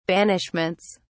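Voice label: "banishments", British English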